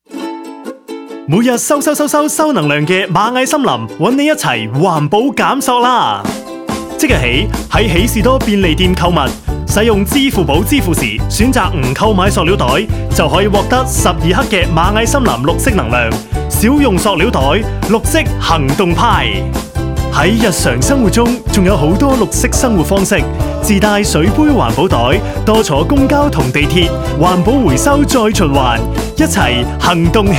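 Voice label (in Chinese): 粤语口播粤语男; 喜士多; 蚂蚁森林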